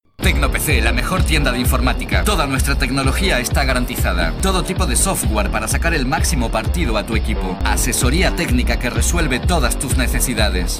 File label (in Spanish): publicidad tecno pc